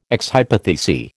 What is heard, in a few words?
"ex hypothesi", American